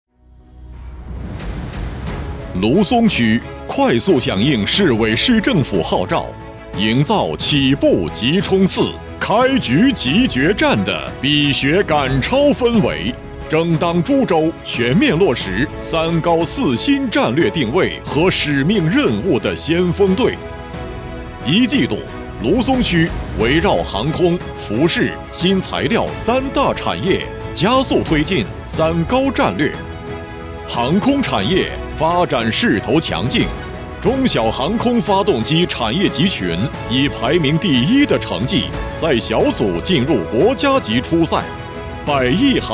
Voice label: 男 宣传片 招商引资宣传片; 芦淞区

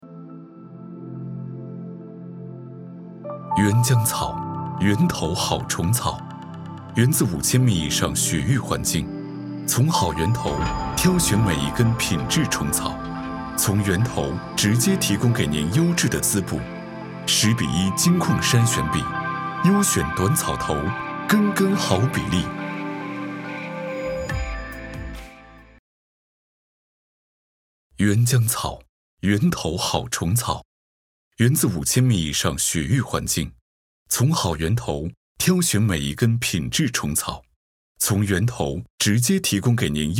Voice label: 男 TVC宣传片; 源江虫草

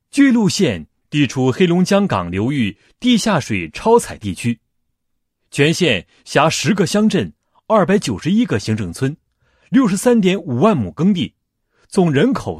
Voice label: 男 解说词; 发展中的巨鹿杂交谷

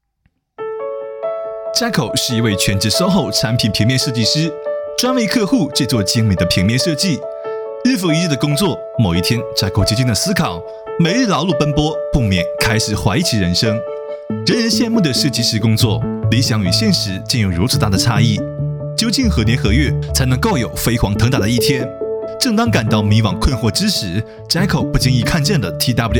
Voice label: 男, 台湾腔, 宣传; TWMEC

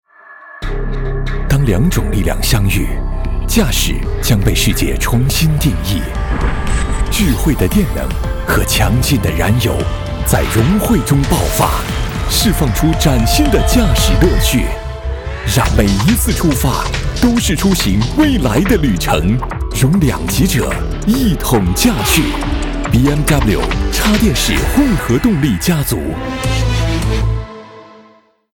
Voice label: 男 广告 宝马BMW混合动力 品牌汽车广告

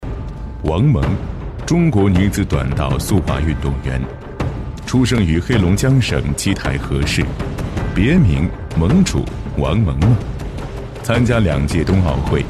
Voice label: 男 纪录片; 凤凰卫视; 濛主驾到

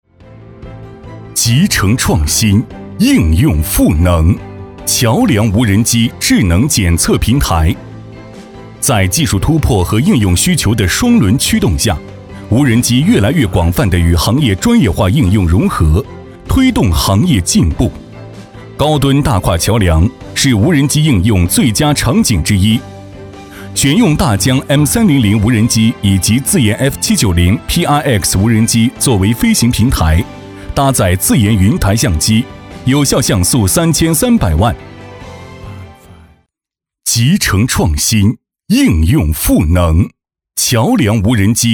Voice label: 男 工程汇报 无人机

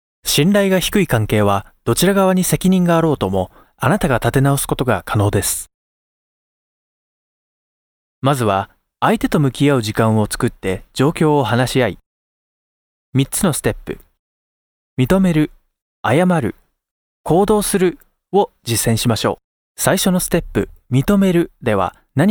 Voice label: 日语男 叙述; 重建信任

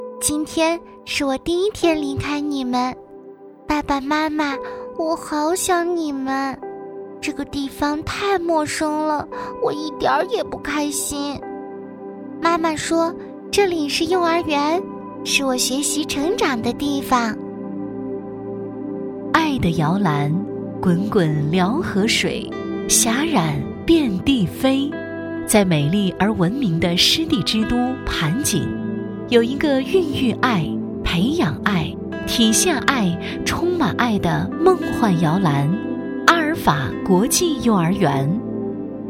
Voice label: 女 专题; 阿尔法

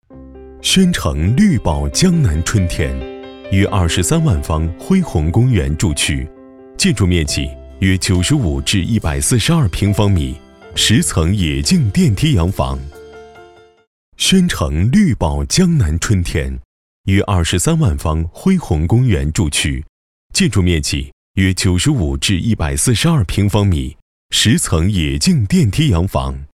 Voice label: 男,彩铃

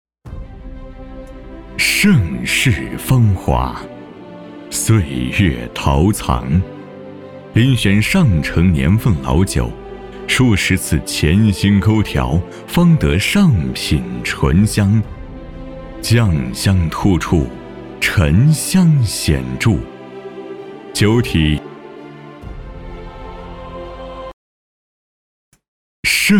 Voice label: 男 浑厚稳重; 盛世芳月陶藏

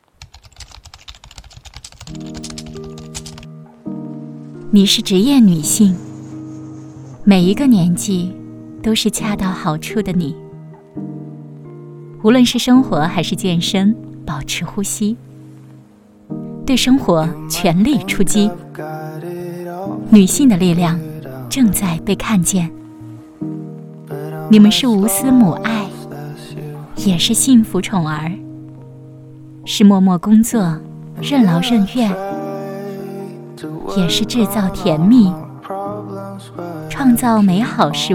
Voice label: 女 旁白 你是职业女性三八妇女节

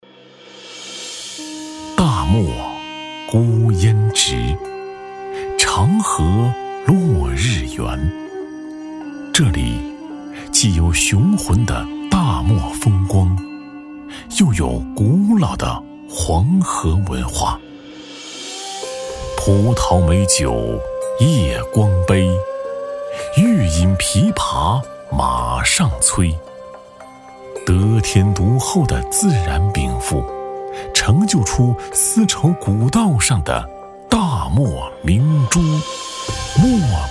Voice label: 男 纪录片 古典风格; 漠贝酒庄

